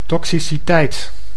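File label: "toxiciteit", Dutch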